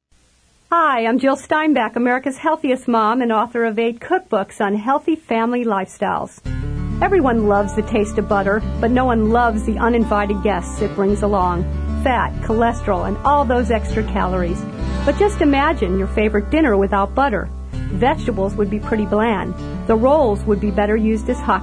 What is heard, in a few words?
radio spot